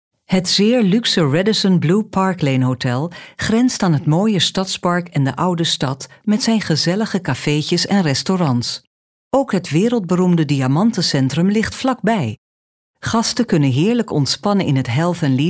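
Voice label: Dutch, Kommentar Neutral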